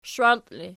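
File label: shwalti ergative, Archi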